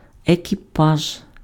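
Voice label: "екіпаж", Ukrainian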